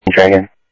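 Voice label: Sheriff East County, scanner audio trunk; ThompsonPeakScot; MCSO - EAST; Sheriff-EastCnty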